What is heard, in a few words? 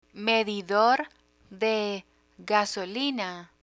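"medidor de gasolina", Spanish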